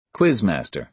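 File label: "quiz-master", American English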